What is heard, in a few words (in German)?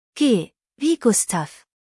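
"gustav", German; G wie Gustav; buchstabierung alt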